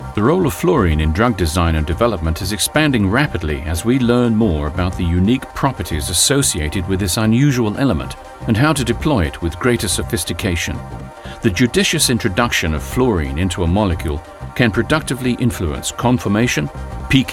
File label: Medical Voice Over